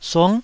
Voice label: "sung9", Taiwanese

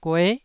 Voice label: "gue9", taiwanese

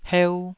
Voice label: "heu2", taiwanese

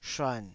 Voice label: "shuan3", taiwanese Syllables

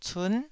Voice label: "cun9", taiwanese

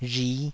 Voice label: "rhi5", taiwanese

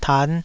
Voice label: "tan5", Taiwanese

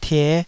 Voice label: "tie5", taiwanese Syllables

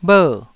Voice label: "bher4", taiwanese

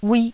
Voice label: "uih1", taiwanese

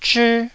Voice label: "zhii1", Taiwanese syllable

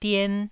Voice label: "dien1", Taiwanese

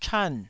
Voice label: "chan4", taiwanese

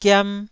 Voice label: "giam1", Taiwanese syllable

